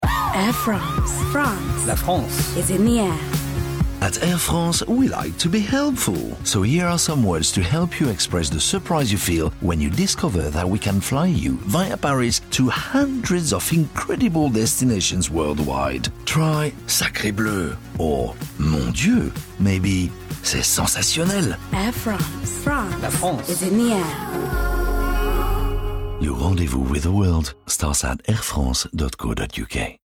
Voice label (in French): spot radio en Anglais; Air France Surprise